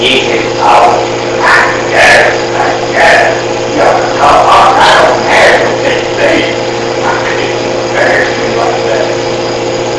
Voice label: Basement Unexplained Whisper (Help Me) Amplified